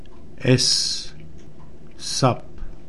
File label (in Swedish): Sap, Romska alfabetet